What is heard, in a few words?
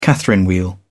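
"catherine wheel", British English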